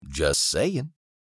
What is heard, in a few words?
Just saying meme voice male